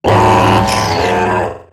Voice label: Death, Robot Large; Warframe; Eximus Corpus Robotic Large; Battle Barks

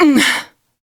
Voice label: Pain, Female; Warframe; Railjack; New Loka

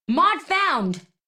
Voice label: Operator Voice D; Warframe; Operator Mission; Mod Marked; en

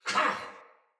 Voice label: Attack, Barks Battle Soldier; Sinecure Soldier

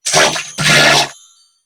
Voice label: Surprised Corpus Crewmen; New War; Narmer

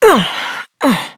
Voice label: Horse Mount Adult, Dialog; Duviri

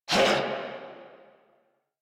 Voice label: Pain Crewmen, en; Warframe; Corpus Crewmen; Crewman A; Battle Barks

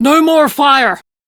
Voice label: Crew Fix Fire, Female; Dialog; en; Warframe; RailjackCrew; Steel Meridian Female

